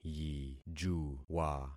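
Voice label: "yijuwa", concatenated syllables; Affixing experiment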